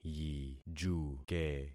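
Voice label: "yijuke", concatenated syllables; Affixing experiment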